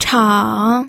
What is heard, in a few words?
"chang3", pinyin